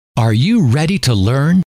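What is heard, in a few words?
are you ready to learn, male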